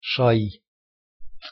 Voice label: "шай", Karatsjaj-Balkaars; thee-in-andere-talen

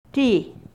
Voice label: "tiih", Shoshone; thee-in-andere-talen

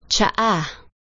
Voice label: "tsaa", Tagalog; thee-in-andere-talen

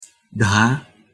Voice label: "茶", Mindong; thee-in-andere-talen

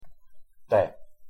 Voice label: "tè", Ligurisch; thee-in-andere-talen

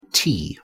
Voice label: "tea", Engels; thee-in-andere-talen

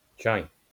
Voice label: "чай", Altaj; thee-in-andere-talen

